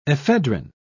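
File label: "ephedrin", English, British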